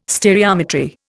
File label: "stereometry", Indian